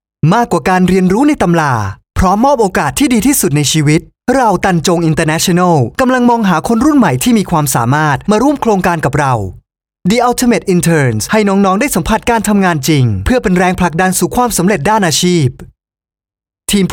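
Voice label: MC Thai Ads Compilation Male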